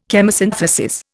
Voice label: "Chemosynthesis", Indian